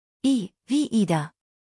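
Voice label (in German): "ida", German; I wie Ida; buchstabierung alt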